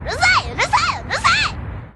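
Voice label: "urusai", Japanese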